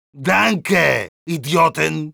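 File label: Medic jeers, Spanish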